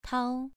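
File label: "tao" Mandarin Chinese, second tone, pronunciation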